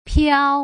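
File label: "piao", Putonghua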